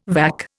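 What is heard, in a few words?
"vac", Indian English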